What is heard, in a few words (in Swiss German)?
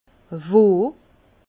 "wo", Bas Rhin